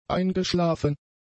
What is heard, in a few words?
"eingeschlafen", German; adjectives